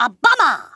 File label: "bummer", Kamikaze; Worms Armageddon